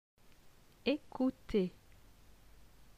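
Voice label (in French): "Ecouter", French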